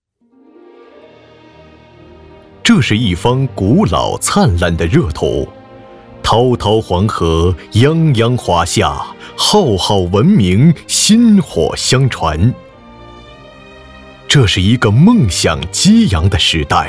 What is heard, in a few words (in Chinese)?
男 专题 沉稳; 住房